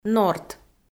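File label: "норт", Komi-Zyrian, female; audio dict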